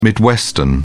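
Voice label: "midwestern", British English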